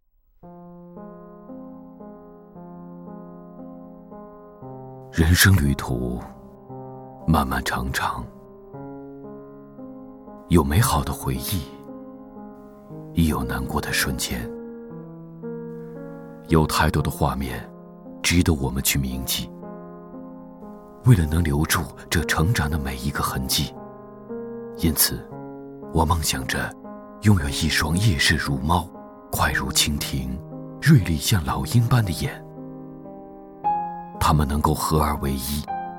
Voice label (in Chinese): 广告 品牌形象, 男国; 央视广告《华为》